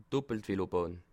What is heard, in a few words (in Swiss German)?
"velobahn", alsace